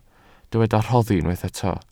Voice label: "rhoddi", Welsh